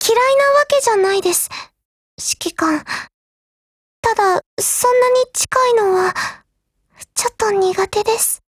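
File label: DIALOGUE, Japanese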